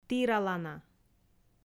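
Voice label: "тіралана", Komi, female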